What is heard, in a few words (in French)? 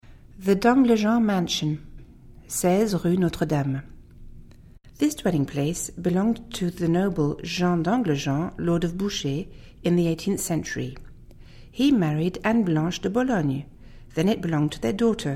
ANGLAIS; Hotel d'Anglejean